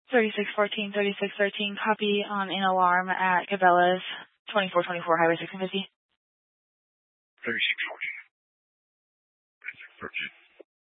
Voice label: Police Dispatch, scanner; Police 1 Dispatch